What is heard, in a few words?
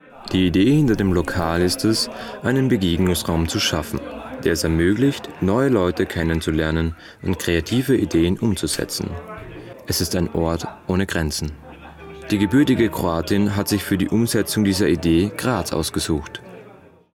Voiceover